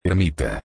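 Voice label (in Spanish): "ermita", español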